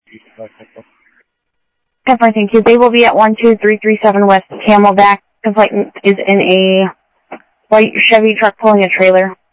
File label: Sheriff-WestCnty, scanner audio; MCSO - WEST; ThompsonPeakScot